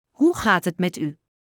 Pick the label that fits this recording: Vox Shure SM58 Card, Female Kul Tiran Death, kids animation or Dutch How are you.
Dutch How are you